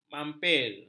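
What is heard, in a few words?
"mampir", Malay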